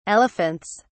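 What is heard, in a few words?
"elephants", British English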